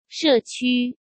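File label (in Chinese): "社区", Mandarin Chinese; hsk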